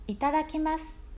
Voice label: itadakimasu pronunciation